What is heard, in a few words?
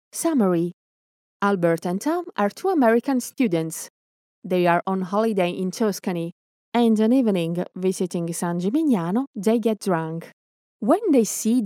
female italian voice over english